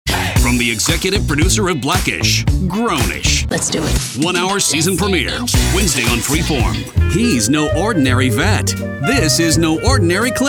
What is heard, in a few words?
Promo